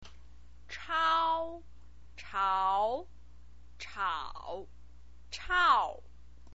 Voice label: "chao", chinese pinyin

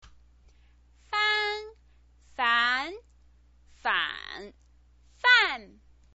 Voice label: "fan", chinese pinyin

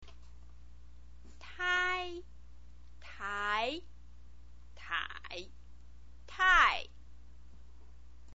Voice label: "tai", chinese pinyin

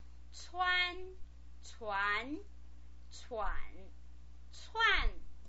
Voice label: "cuan", Chinese pinyin

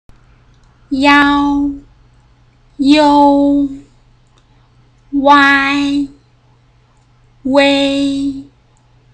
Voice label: "iao", pinyin, chinese

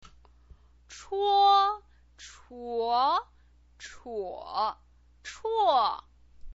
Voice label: "chuo", chinese pinyin

